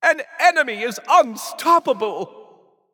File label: Announcer Spree Enemy 7 Kills; Jester; Paladins